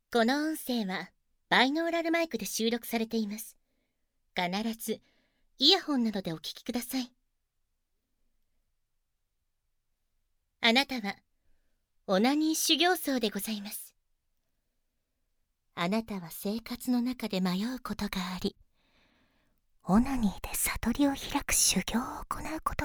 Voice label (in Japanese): シコシコボイス